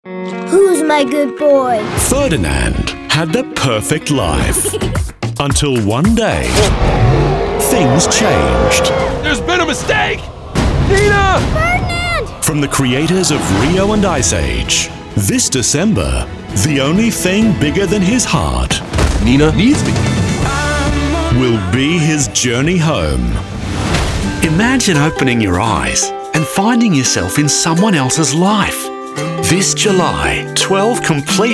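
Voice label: Oz and US ACCENTS, Australian and US accents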